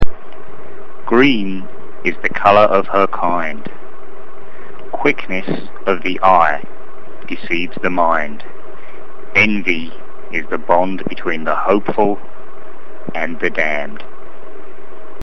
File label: Clipped control